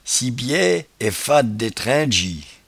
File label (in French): "cibiaie", patois jura